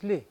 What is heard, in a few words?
"li", Mokilese